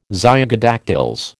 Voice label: "zygodactyls", American